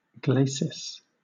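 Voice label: "glacis", British English; pronunciations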